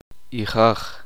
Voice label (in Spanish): uspanteko semilla